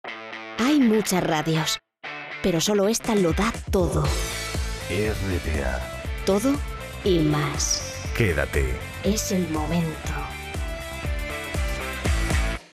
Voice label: Spanish promos